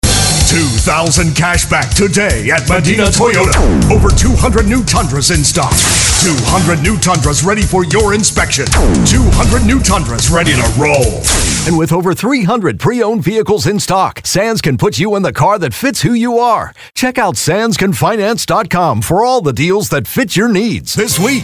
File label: Hard Sell